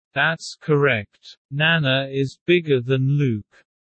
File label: low; Animal friends